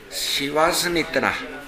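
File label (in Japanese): "sewasune", 南山形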